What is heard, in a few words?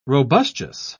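"robustious", British English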